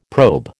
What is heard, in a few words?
"probe", American